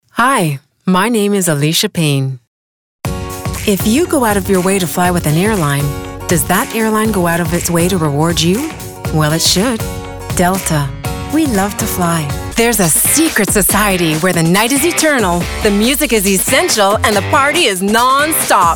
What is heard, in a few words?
commercial female